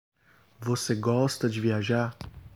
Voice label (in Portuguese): voce gosta de viajar, brazil